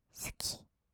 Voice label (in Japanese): "すき…", kawaii voice; 1200 kawaii voice!!; 色々なワンショット